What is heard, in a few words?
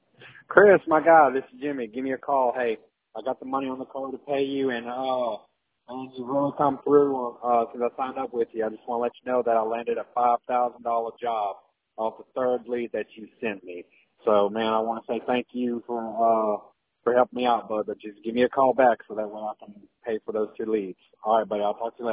voicemail